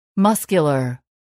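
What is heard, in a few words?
"muscular", English word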